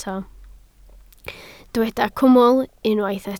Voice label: "cwmwl", Welsh

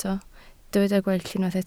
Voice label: "gweill", Welsh

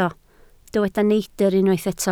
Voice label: "neidr", Welsh

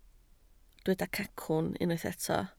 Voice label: "cacwn", Welsh

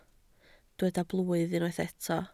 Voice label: "blwydd", Welsh

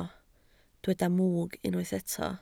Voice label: "mwg", Welsh

